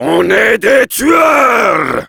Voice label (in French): Heavy cheers, fr; "On est des tueurs!"